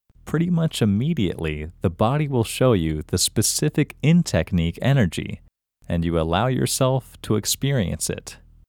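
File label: Male, India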